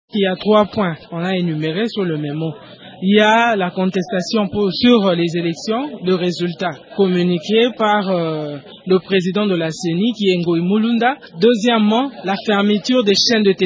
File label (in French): Une femme de lopposition